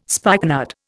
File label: "spikenard", Indian